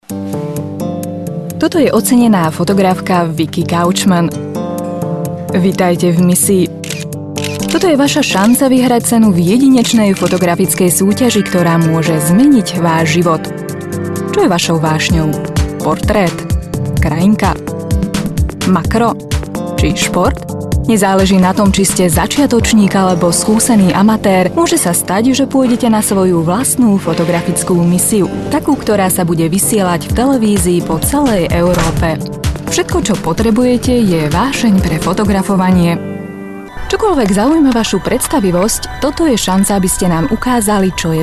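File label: Slovak, Commercial; GoLocalise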